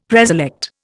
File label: "preselect", Indian; Dictionary